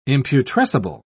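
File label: "imputrescible", British English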